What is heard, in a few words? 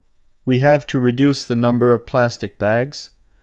neutral; emov db